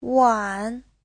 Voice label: "wan3", Chinese; Giáo trình Hán ngữ

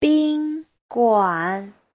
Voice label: "bin1guan3", Chinese

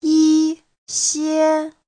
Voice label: "yi1 xie1", Chinese; 一些 : vài, những

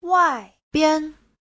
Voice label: "wai4bian5", Chinese; Giáo trình Hán ngữ

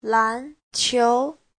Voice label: "lan2 qiu2", chinese; Giáo trình Hán ngữ

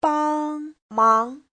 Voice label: "bang1mang2", Chinese; 帮忙; Giáo trình chuẩn HSK3

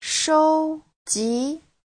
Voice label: "shou1ji2", Chinese; 收集; thu thập

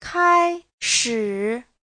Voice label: "kai1shi3", chinese

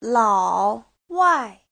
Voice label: "lao3 wai4", chinese; 老外; người nước ngoài

